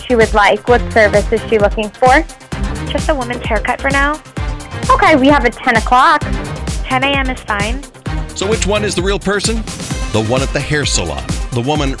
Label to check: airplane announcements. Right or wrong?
Wrong